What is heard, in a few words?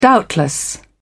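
"doubtless", British English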